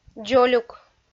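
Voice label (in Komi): "дзолюк", Komi, female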